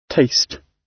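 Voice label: "taste", British English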